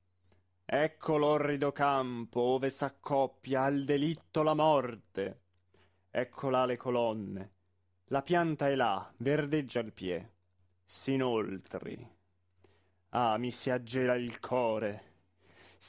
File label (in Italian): espressivo; Un ballo in maschera; Ecco l orrido campo